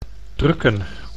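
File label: "drukken", Dutch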